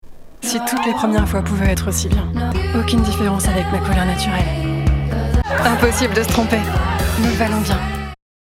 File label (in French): TV DOUBLAGE; LOREAL; Camille Rowe